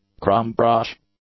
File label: "crumb brush", English; nouns